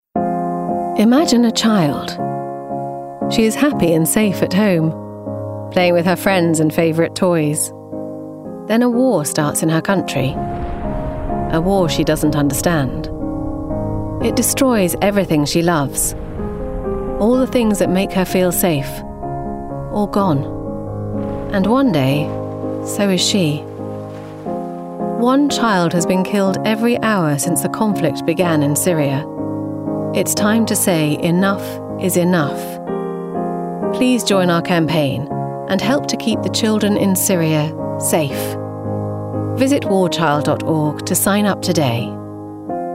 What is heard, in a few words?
Commercial; War Child